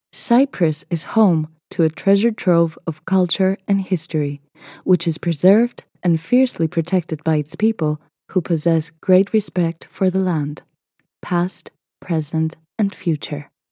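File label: Greek, Accented English; voice demos; GoLocalise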